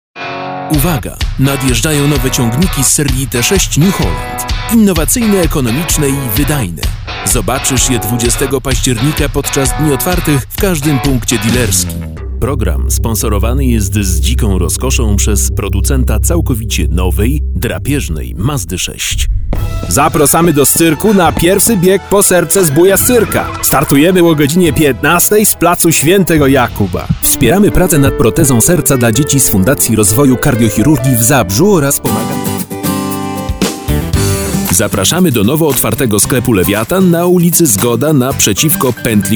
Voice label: POLISH Commercial